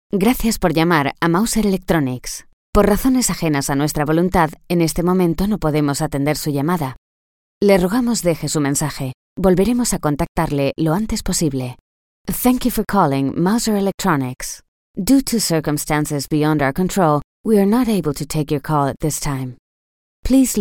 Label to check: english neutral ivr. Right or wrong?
Right